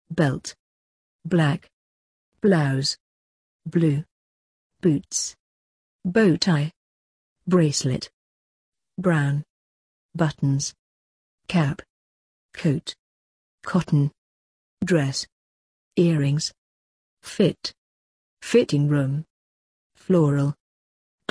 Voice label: uk; clothing

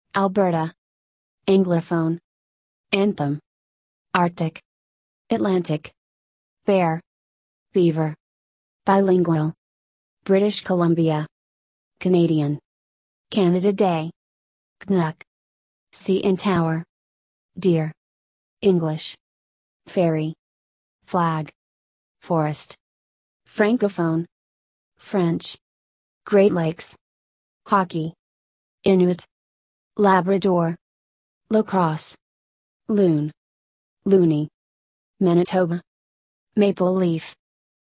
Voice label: usa

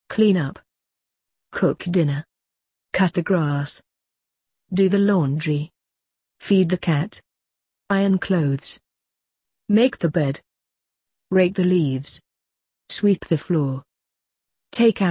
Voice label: uk household

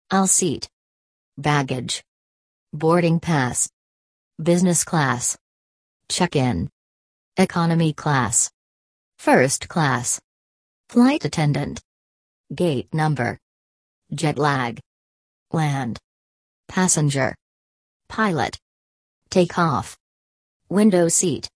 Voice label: airports american

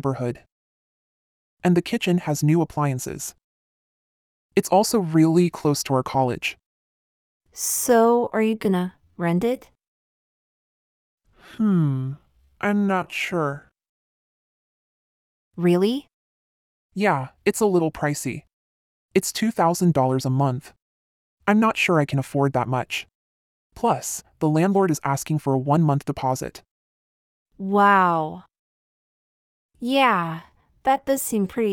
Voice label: apartment hunting a dialog

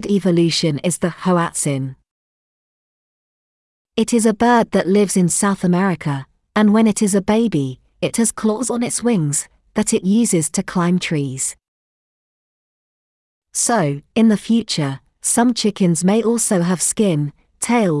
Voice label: uk making dinosaurs

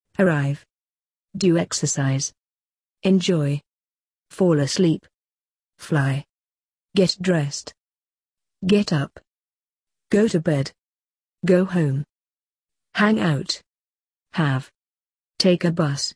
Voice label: daily activities british

